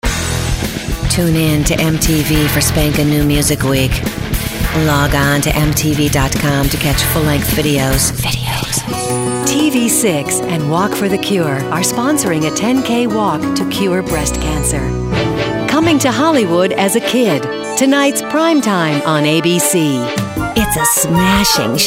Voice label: TV Promo